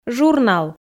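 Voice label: "журнал", Komi, female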